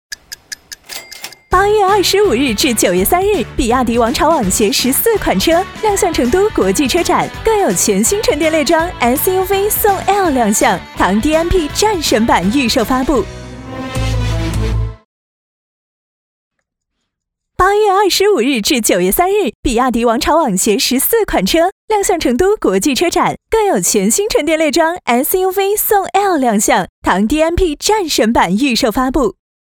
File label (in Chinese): 电台 广告 年轻 甜美; 比亚迪